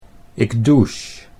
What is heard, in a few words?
"ik douche", Dutch